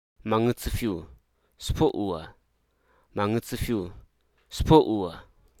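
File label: Hruso Aka; countless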